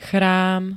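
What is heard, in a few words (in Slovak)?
"chrám", Slovak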